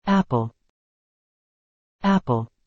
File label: "apple", English